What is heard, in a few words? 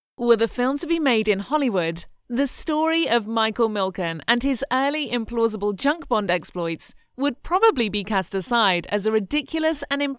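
Narration, voiceover; Junk Bonds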